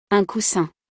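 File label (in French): "un coussin", French; vocabulaire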